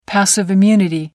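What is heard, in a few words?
"passive immunity", British English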